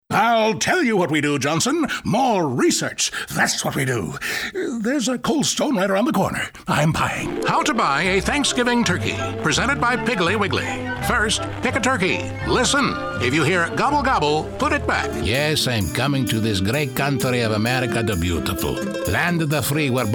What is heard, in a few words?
Male Voice Over Character